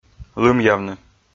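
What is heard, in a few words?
"лымъявны", Komi, male; kpv audio dict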